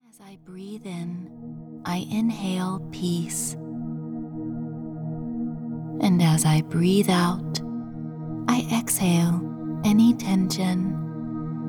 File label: Affirmations for Sleep 528Hz